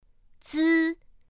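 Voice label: "z", Mandarin Chinese pinyin; pinyin2; pinyinji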